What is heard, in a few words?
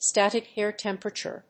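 "static air temperature", English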